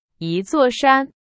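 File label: Chinese, example sentence; hsk2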